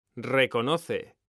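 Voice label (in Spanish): "RECONOCE", Spanish; mekanta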